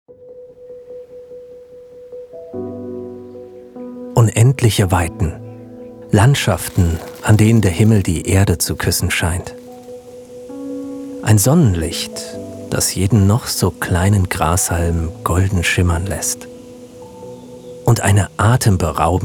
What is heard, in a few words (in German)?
doku, sprecher; namibia